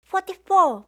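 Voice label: "forty-four", English; eng suuji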